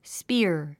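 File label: "spear", English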